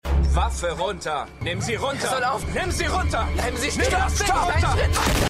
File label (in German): Soldat, Stimmproben; Revolution